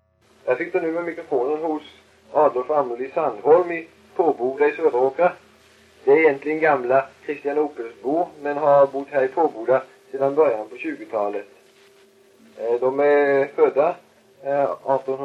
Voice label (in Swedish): Kristianopel BLEK, dialekter; Folkminnen